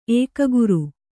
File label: "ಏಕಾಗ್ರ", Kannada